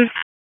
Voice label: Raleigh Police Northeast District Tac, p25; wake